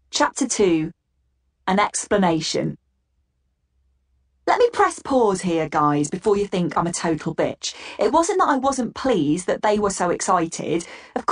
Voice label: AUDIOBOOK